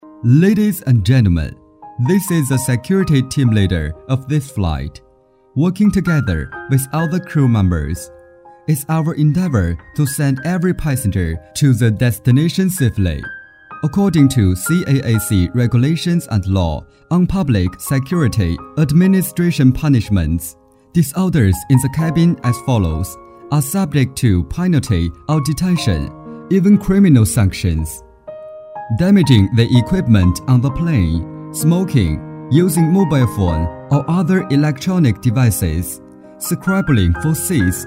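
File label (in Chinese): 彩铃, 男; 英文价格不一样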